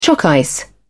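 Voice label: "choc ice", British English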